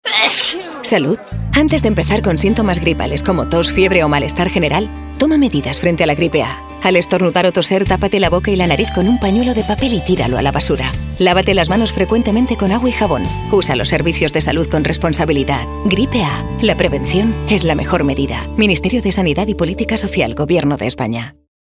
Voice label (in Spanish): CASTELLANO